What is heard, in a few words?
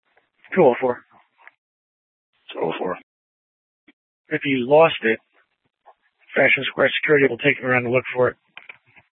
PD Dispatch; Scottsdale; Sctdal PD Simulcast H; A01 - Sth Dispatch